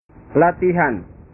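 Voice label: "latihan", Indonesian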